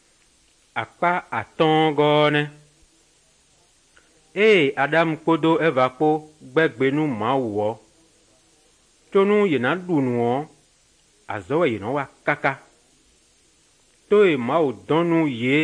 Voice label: good news, fon fongbe bible drama

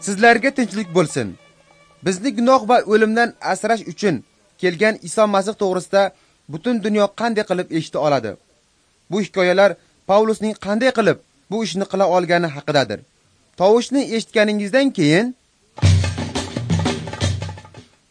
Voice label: uzbek northern; acts of the holy spirit

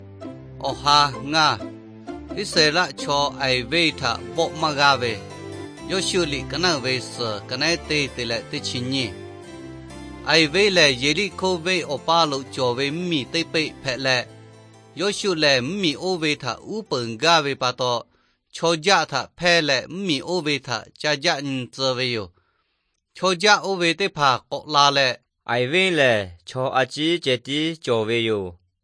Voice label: victory through god lahu na, nt drama; bible; lahu thailand bible society

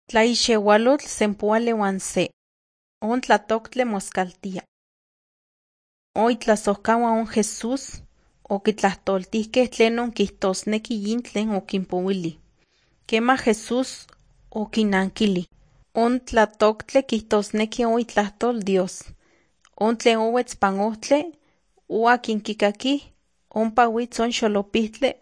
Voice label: nahuatl guerrero tlapa; the bible league nt drama

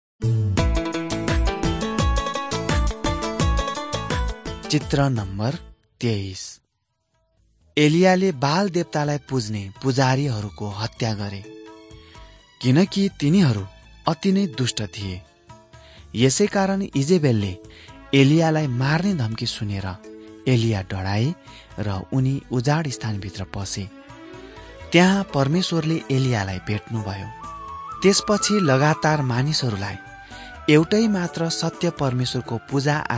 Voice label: servants of god nepali

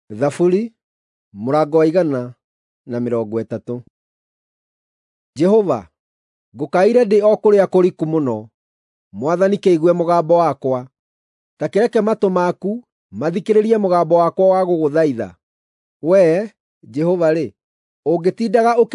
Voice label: psalms, gikuyu drama

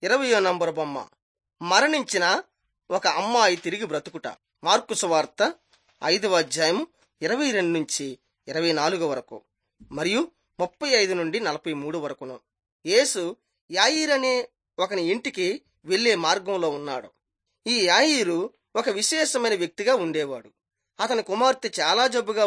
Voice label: telugu bangladesh; jesus teacher and healer

